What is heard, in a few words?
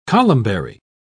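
"columbary", British English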